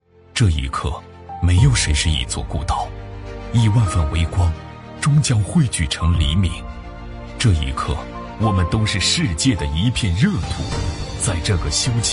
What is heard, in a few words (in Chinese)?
其他 栏目 独白 磁性, 男